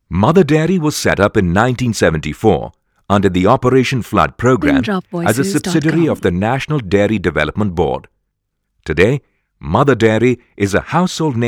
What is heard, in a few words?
English